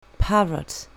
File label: "parrot", English; pet animals; colour your english